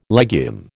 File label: "legume", American